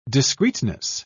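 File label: "discreteness", British English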